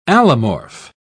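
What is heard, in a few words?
"allomorph", British English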